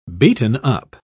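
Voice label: "beaten-up", British English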